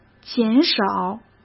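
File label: "减少", Mandarin Chinese; chinese flashcards; hsk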